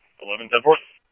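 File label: police department, scanner audio trunk; ThompsonPeakHigh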